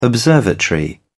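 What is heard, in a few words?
"observatory", British English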